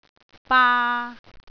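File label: "baa3", Cantonese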